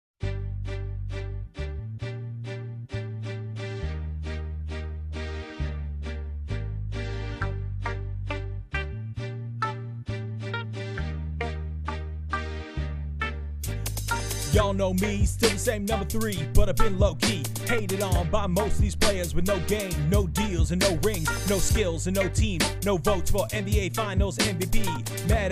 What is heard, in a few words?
Voice Over